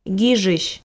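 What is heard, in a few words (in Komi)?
"гижысь", Komi, female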